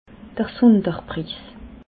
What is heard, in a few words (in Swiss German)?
"sunderpris", alsace